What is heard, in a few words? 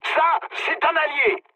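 French player friendly fire mood med; War Thunder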